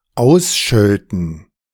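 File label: "ausschölten", German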